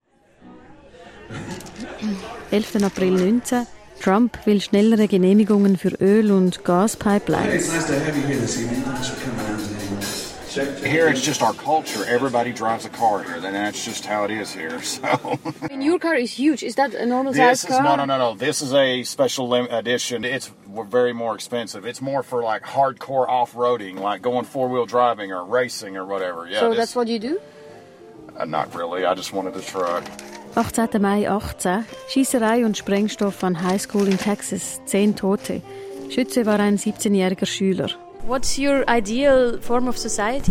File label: Radio trailer; Pendulum